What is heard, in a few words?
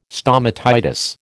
"stomatitis", American